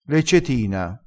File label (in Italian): "leccetina", vocabolario dialettale